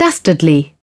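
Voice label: "dastardly", British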